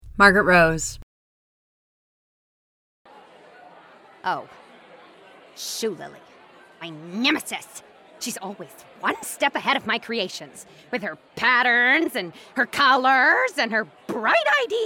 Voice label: animation demo, VOICE OVER Animation